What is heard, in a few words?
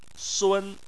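"sun", Chinese, first tone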